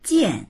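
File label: "jian4", Chinese; 件; 量; ～着、～件（服、事柄を数える）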